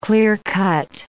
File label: "clear-cut", English